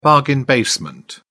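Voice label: "bargain basement", British English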